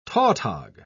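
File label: "tautog", British English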